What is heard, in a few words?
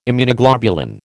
"Immunoglobulin", American